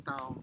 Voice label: "tau", futuna aniwa